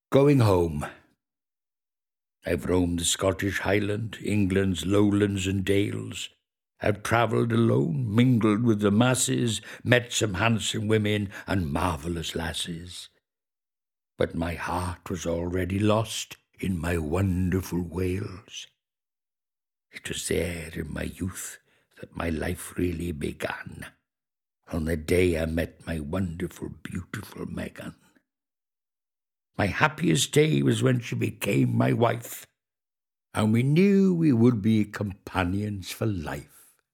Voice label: poem read; Victor Spinetti